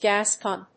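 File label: "gas pump", English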